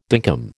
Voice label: "dinkum", American